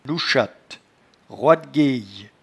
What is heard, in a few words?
"nouchatte", patois jura